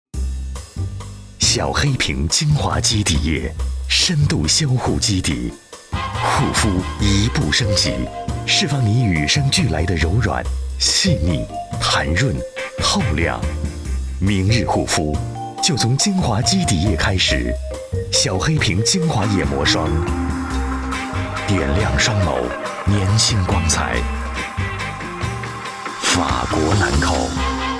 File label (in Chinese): 男 广告, 国语男声配音